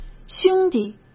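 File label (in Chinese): "兄弟", Mandarin Chinese; hsk; chinese-flashcards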